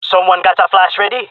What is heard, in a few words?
request flash, jungle male; agents